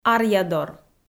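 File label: "аръядор", Komi, female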